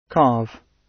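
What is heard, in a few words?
"carve", British English; Time, history; Thời gian, lịch sử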